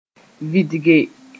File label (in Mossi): "vɩdge", moore